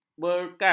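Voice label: "berkas", Malay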